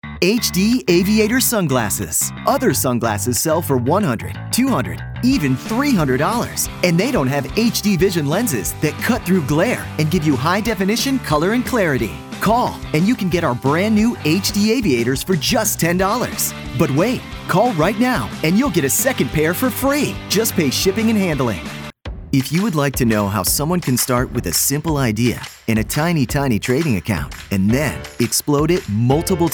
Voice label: Informercial

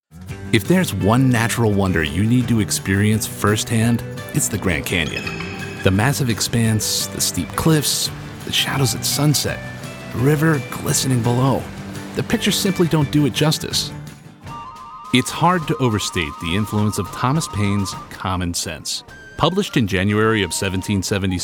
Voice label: Narration

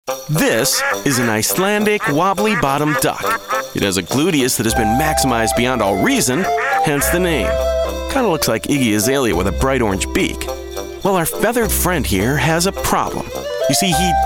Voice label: Explainer

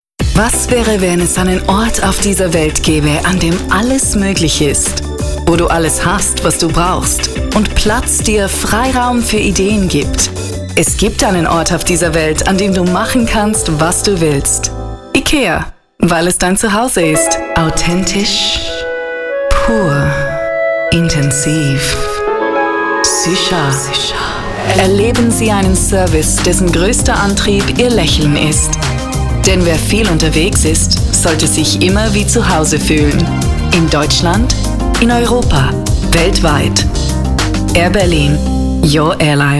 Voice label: Commercial German

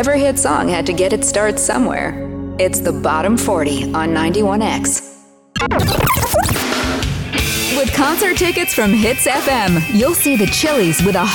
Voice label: Radio Imaging

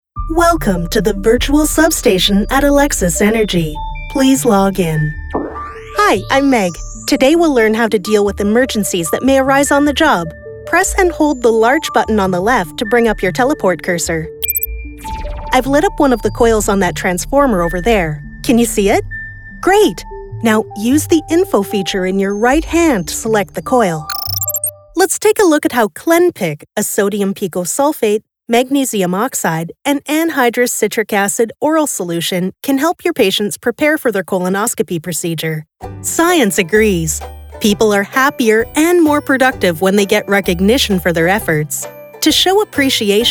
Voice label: e-learning

